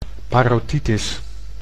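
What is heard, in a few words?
"parotitis", Dutch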